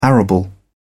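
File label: "arable", British English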